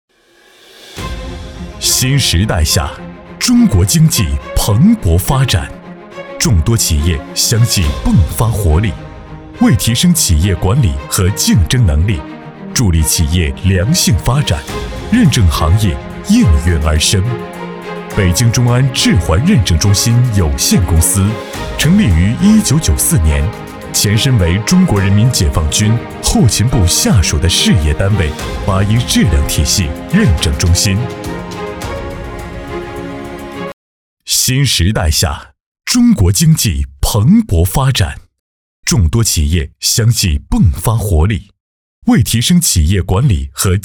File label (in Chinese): 男, 专题; 中安质环辽宁分公司